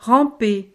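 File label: "ramper", French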